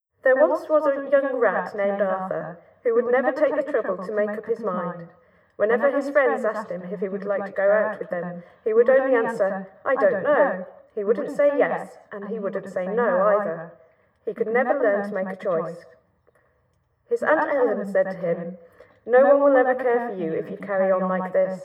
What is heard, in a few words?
female speech